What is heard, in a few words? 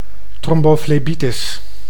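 "tromboflebitis", Dutch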